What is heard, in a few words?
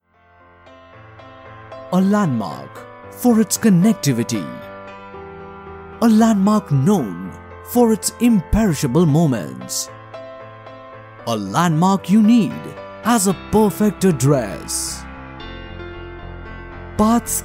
Hinglish Corporate